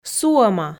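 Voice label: "суӧма", Komi, female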